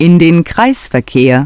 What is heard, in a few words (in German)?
"in den Kreisverkehr", Navigation; DISTEFORA Navigation; Mobile Navigator Notebook